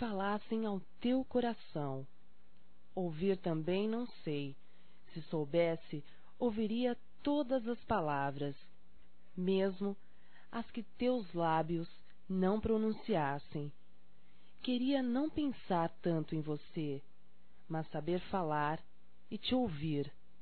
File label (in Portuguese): Sentimento Feminino, Telemensagens Românticas